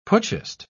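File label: "putschist", British English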